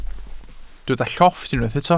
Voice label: "llofft", welsh

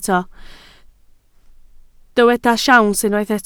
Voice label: "siawns", Welsh

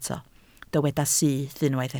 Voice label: "syth", Welsh

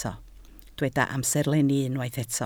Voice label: "amserlenni", Welsh

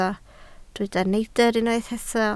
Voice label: "neidr", Welsh

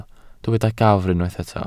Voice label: "gafr", Welsh

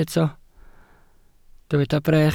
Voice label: "brech", welsh

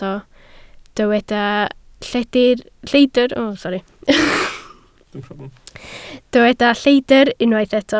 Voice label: "lleidr", Welsh

